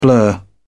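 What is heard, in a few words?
"blur", British English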